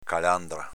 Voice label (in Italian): "calandra", dialetto veneto; s.f. cicala